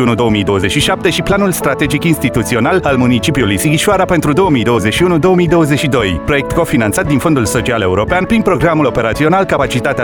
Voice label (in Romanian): spot Servicii digitalizate; mures; sighisoara